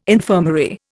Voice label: "infirmary", Indian